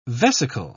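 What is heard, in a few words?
"vesical", British English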